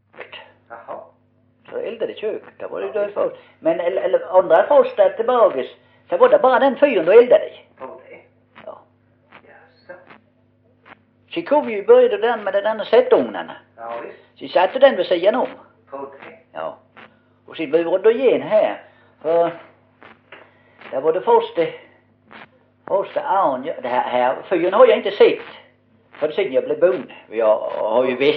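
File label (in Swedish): Osby Skåne, svenska sagor dialekter; Folkminnen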